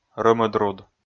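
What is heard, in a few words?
"рӧмыдруд", Komi, male; kpv audio dict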